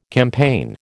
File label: "campaign", American